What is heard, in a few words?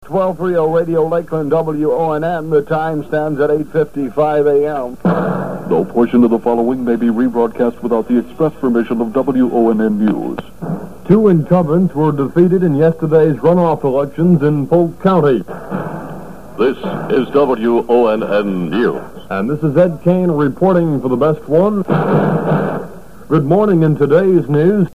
News Intro; WONN; 1962